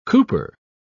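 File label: "cooper", British English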